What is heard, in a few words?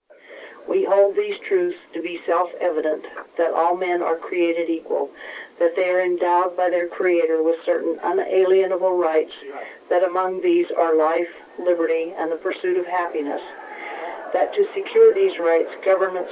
combined declaration crowd